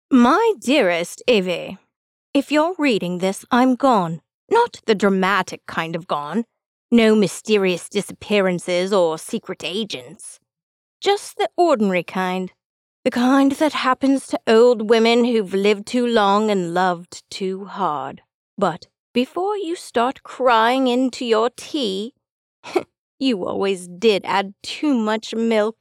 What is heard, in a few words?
British General